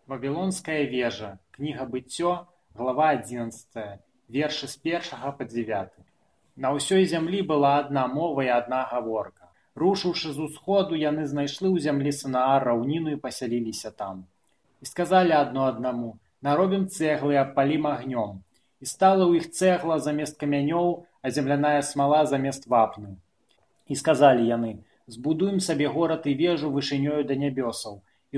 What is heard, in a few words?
belarusian